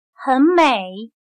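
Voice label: "很美", Chinese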